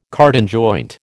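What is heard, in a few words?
"cardan joint", American English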